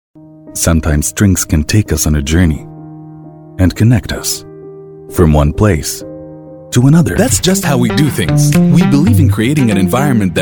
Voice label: EN Narration